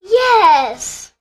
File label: "yes", girl